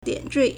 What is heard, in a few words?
"dian3 zhui4", Chinese